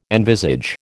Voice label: "envisage", American

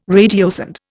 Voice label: "radiosonde", Indian

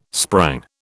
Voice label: "sprang", British

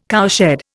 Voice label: "cowshed", Indian

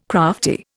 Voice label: "crafty", Indian

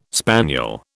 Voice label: "spaniel", British

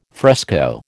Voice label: "fresco", American

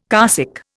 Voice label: "carsick", Indian

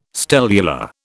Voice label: "stellular", British

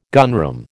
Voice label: "gunroom", American

